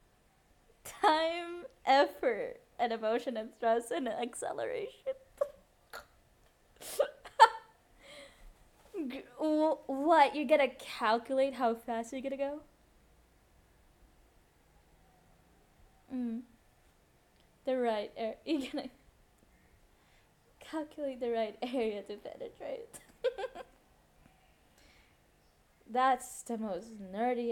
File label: A Flirty Conversation, Wet noise; F4M; A Flirty Conversation with your VA but it turns spicy